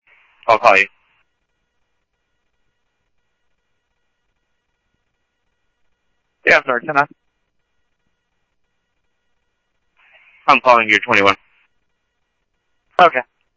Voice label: Scottsdale Police, scanner audio; ThompsonPeakHigh; SCTSDLE PD - PD2